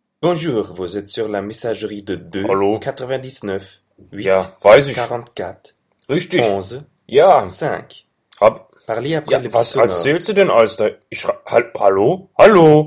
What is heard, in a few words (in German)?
Telefonat